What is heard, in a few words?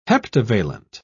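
"heptavalent", British English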